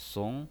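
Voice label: "song", Mandarin Chinese pinyin, second tone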